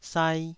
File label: "sai2", taiwanese